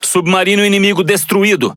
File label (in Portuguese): Speech Destroy Enemy Sub, PT BR; World Of Warships